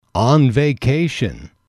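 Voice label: "on vacation", English, male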